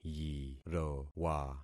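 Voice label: "yirowa", concatenated syllables; Affixing experiment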